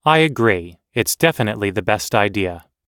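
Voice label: everyday agreeing, American English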